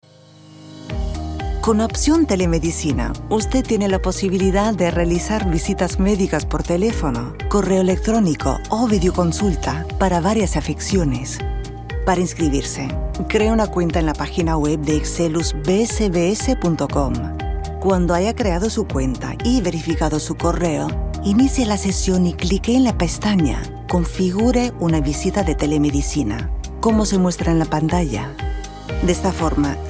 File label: Medical International Spanish